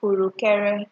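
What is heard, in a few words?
"kurukere", Nigerian Pidgin, female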